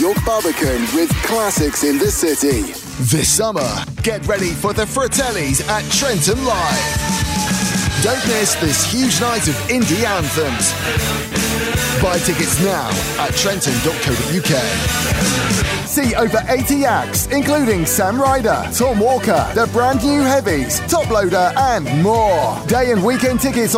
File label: festivals event commercials